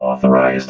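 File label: "authorised", robot voice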